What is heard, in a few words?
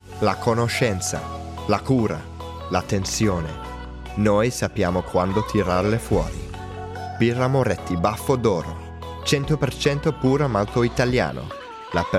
Italian